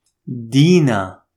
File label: Dina pronunciation, Arabic baby girl name; دينا